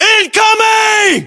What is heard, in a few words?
voiceovers, US; localized english